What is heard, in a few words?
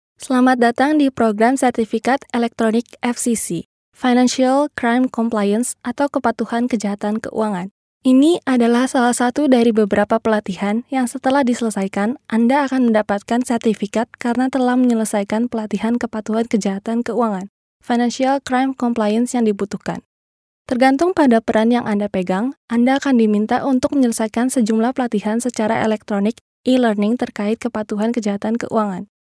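Indonesian, female, voice over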